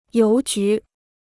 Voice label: "邮局", Chinese